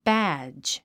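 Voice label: "badge", English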